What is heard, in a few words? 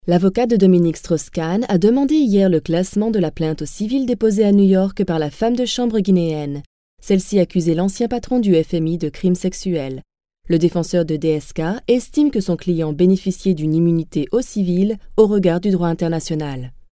french female